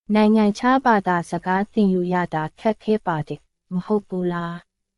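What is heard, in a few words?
Myanmar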